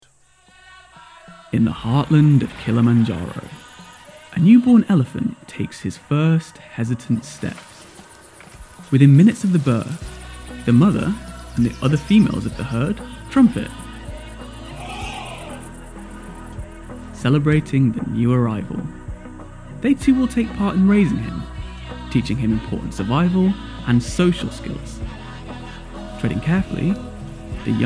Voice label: male English neutral northern accent documentary